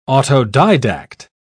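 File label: "autodidact", British English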